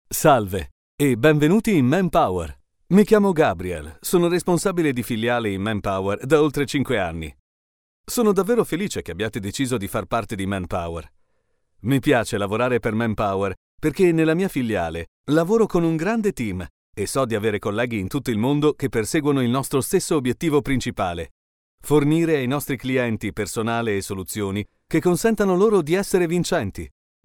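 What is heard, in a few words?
Italian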